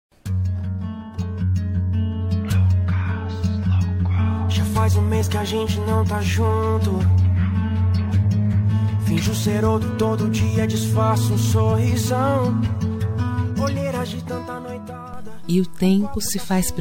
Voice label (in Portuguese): ex fem; dia dos namorados para marido voz feminina